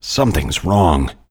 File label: wrong, voiceover